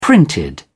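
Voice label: "printed", British English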